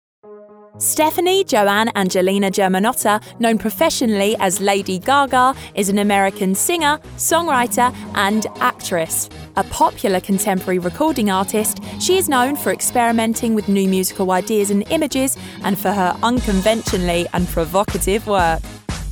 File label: female English documentary; lady gaga